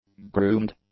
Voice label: "groomed", english; adjectives